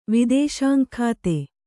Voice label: "ವಿದೇಶಾಂಗಖಾತೆ", Kannada

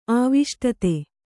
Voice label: "ಆವೇಷ್ಟಿತ", kannada

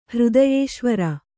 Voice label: "ಹೃದಯೇಶ್ವರಿ", Kannada

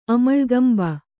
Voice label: "ಅಮಳ್ಗಂಬ", Kannada

